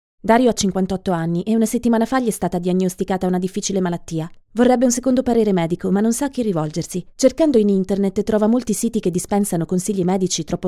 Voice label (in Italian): italian corporate videos